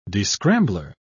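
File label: "descrambler", British English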